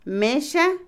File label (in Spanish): mesa, Otomí, mujer; Casa; INALI